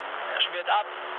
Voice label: aircraft destroyed, mood low, speech ge